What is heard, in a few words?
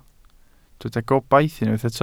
"gobaith", Welsh